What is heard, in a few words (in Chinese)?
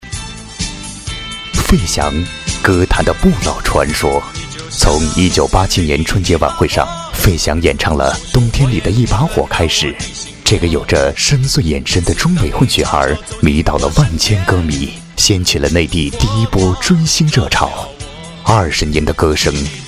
专题, 人物, 沉稳